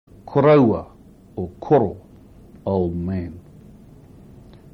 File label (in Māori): "koroua", maori words